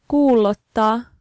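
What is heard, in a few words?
Finnish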